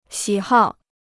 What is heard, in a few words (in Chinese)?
"喜好", Chinese